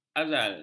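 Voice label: "azal", Malay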